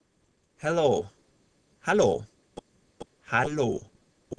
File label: German Hello